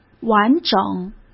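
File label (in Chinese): "完整", Mandarin Chinese; hsk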